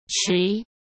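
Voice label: "持", Mandarin Chinese; chinese-flashcards; hsk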